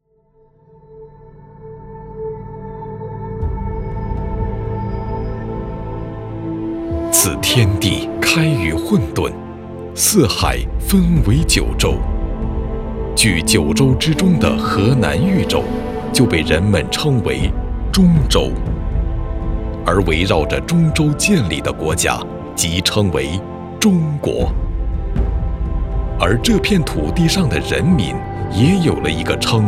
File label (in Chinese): 男 省宣传片 深沉 豪迈 大气